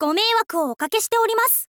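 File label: "gomeiwaku wo okakeshite", Japanese, girl; voice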